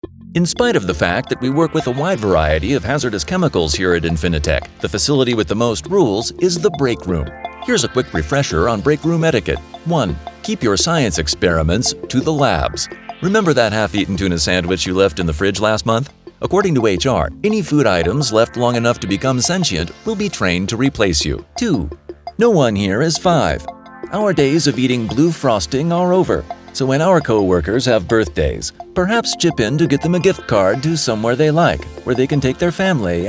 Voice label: eLearning; Infinitech